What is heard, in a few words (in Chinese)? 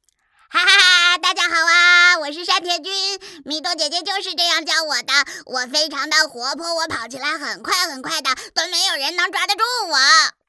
声展男童; 声展男童3山田君